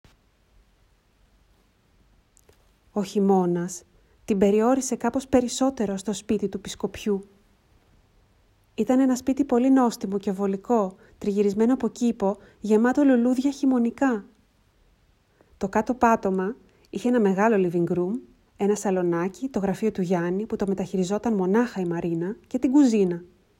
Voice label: Greek

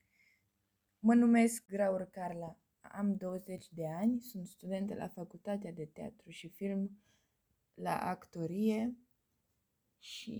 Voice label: Language reel

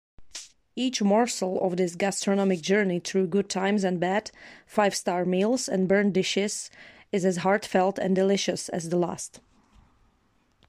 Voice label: voice sample us eng

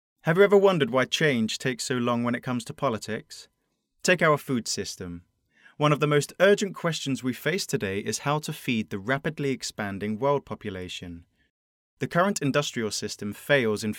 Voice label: UK Voice

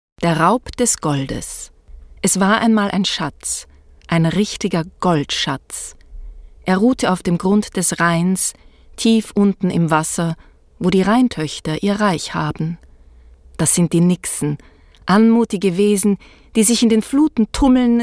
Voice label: german

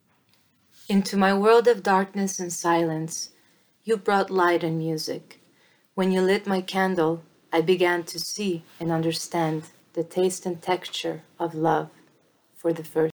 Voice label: English

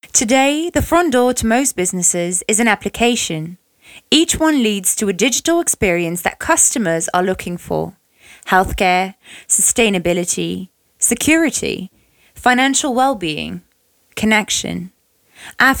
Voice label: Voice Over

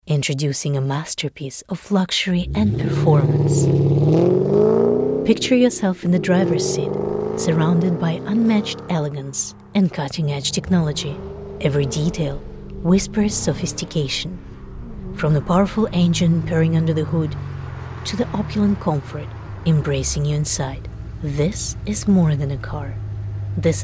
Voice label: Voice over English

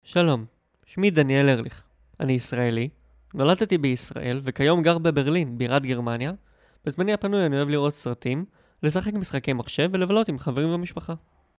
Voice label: Hebrew

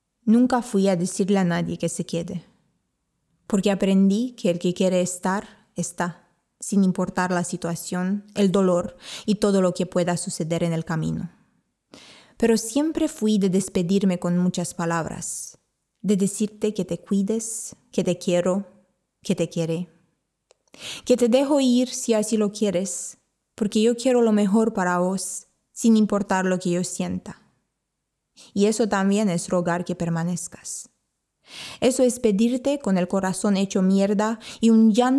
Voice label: Speaking Spanish

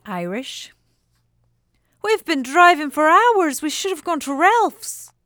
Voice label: Irish

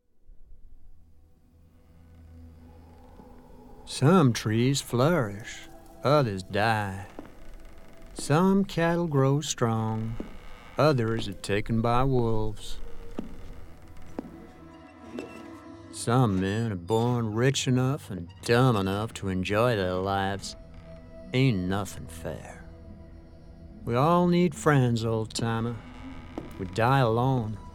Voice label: Video Game Reel

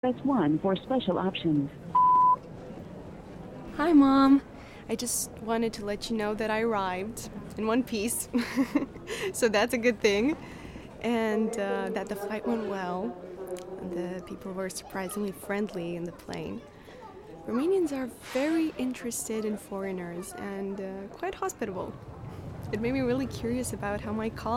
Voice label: American accent happy mood

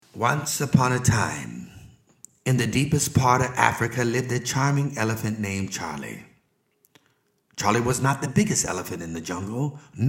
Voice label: Natural voice

